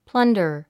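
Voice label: "plunder", English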